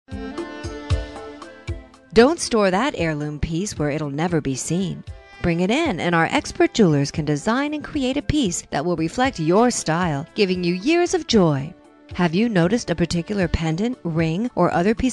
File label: Jewelers On Hold; Shamrock Jewelers